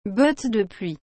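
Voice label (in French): "bottes de pluie", French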